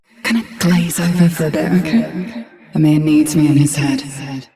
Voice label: Ambient Barks, en; Warframe; Mall Romance; Eleanor